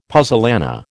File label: "puzzolana", American